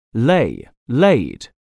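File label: "lay", English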